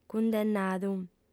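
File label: "cundennadu", female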